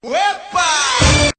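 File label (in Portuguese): "uepa", Portuguese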